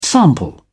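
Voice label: "sample", British English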